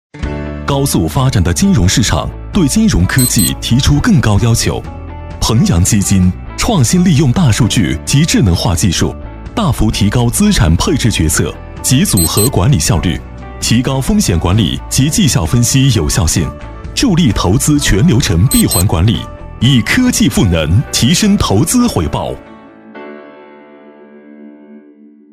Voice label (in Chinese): 男 专题旁白 科技感; 磁性质感; 年轻时尚; 鹏扬基金